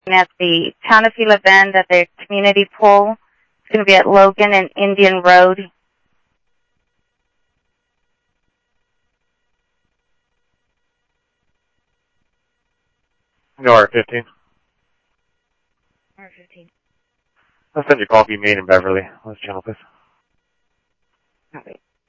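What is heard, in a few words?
Sheriff West County, scanner audio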